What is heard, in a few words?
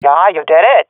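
sees enemy killed, swat female; Counter Strike Model Pack; CS:GO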